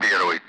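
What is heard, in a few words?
Speech, Russian; Allied Flight; DCS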